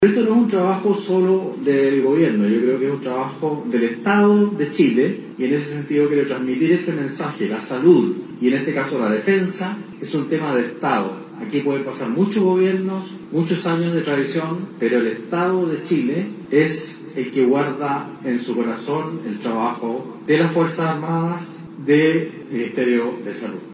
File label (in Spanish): cuña